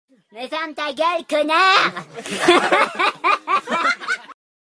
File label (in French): Helium